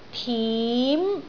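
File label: "tim2", Cantonese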